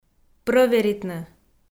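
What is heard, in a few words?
"прӧверитны", Komi, female; kpv audio dict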